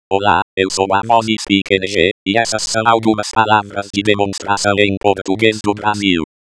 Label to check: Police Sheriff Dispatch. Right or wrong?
Wrong